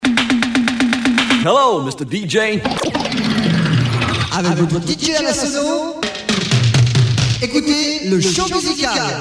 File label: jingle dj